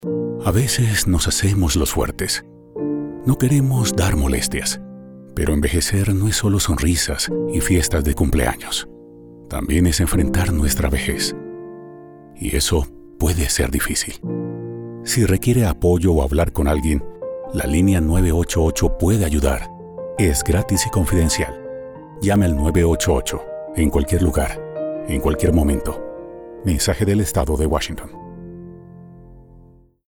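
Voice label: Older Adults Spanish Radio; 988; SPN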